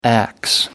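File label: "axe", English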